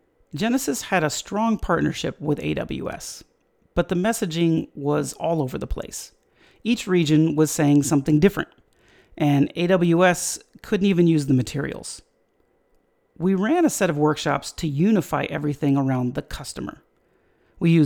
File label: voicetrack